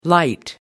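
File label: "light up", English, British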